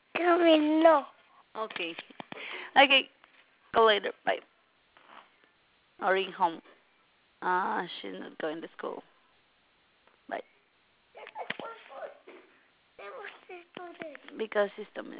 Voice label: wish me happy birthday voice message